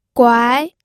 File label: "guai2", pinyin audio